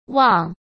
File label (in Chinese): "旺", Chinese